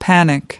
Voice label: "panic", us